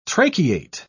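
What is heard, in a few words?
"tracheate", British English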